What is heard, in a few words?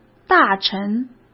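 "大臣", Mandarin Chinese; chinese flashcards; hsk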